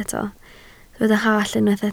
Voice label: "hallt", Welsh